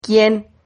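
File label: "quien", Spanish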